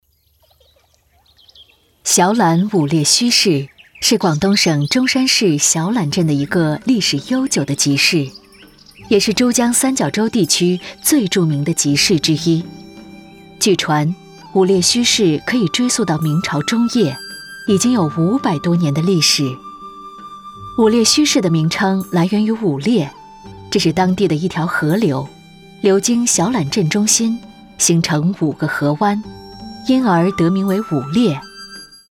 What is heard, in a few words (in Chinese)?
专题纪录沉稳; 小榄五埒墟市